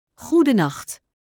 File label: Dutch Good night; Greetings